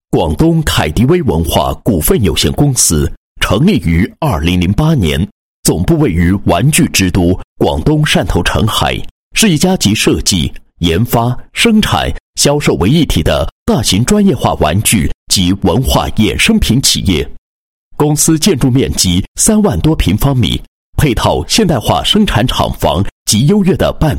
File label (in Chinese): 男 宣传广告; 凯迪威文化股份有限公司宣传简介